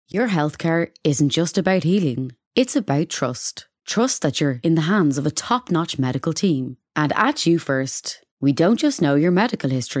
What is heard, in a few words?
Professional